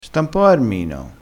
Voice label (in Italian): "carmino", crespinese